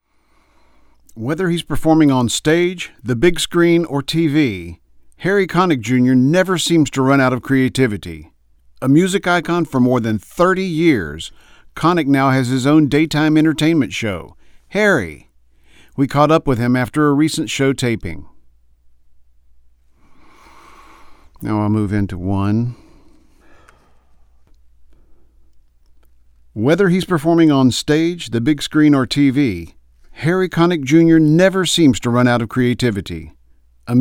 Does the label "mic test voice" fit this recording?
Yes